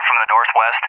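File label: "from the northwest", English, USA; DCS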